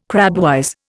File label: "crabwise", Indian